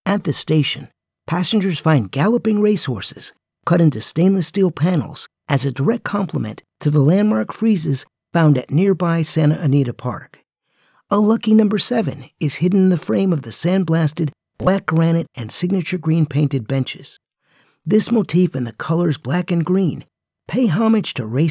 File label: Audio Tour